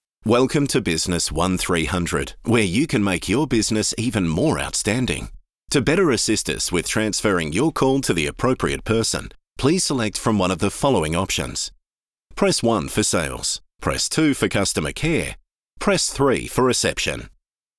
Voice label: PROFESSIONAL CORPORATE